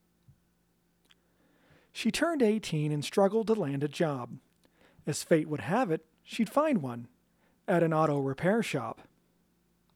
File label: Mechanic Shop Femme VO Scratch